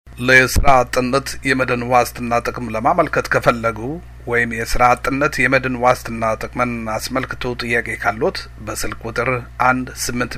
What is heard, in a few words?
amharic